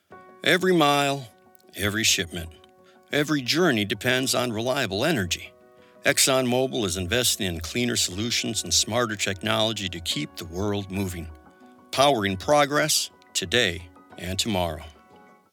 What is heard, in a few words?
online Ad reliable powerful strong male voiceover; Exxon